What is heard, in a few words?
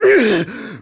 Angry; Ghirahim; zelda sounds; Skyward Sword